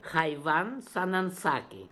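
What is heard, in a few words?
"Khaivansanansaks", pronunciations; Fourth Way; Beelzebub pronounciations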